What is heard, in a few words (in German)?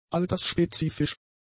"altersspezifisch", German; adjectives